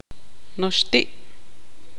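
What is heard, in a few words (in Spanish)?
"cacto", Tz'utujil, female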